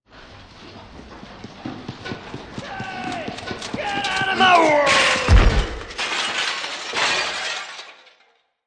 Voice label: Get Outta My Way, Male

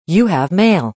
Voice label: You Have Mail, Internet, Female; Telephone Voice Sound Effects

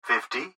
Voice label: Gps Voice, Male

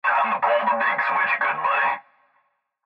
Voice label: Cb Trucker Radio; Radio Voice; Blastwave

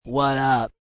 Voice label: Wat Up, Male voice; Talking

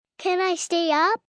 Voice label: Can I Stay Up, Questions, 1 girl, 6 yrs.; Talking Sound Effects

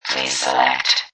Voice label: Robot Voice "Please Select"

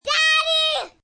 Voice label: "Daddy" Kids, Yelling, child, girl

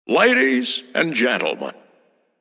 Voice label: Ladies Gents, Male; Talking Sound Effects

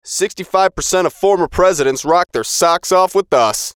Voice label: VOICE COMICAL; 65 Percent Of Former Presidents; Funny Sound; Radio Voice; Production Element, Imaging Element, Accent, Transition; Blastwave

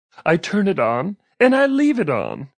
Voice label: Turn On Leave On, Male; Radio Sound Effects

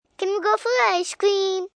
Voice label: Go For Ice Cream, Questions, 1 girl, 5 yrs.; Talking

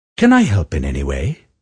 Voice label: Can I help in any way?, Male

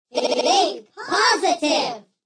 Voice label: Think Positive, Group; Talking Sound Effects; VoiceBox; Twistedtracks

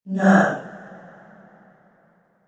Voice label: Female Ghost Shouts No; Ghost Sound Effects

